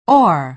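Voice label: "oar", American English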